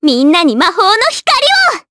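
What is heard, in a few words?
Vox Skill Japanese; Annette; kingsraid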